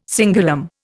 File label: "cingulum", Indian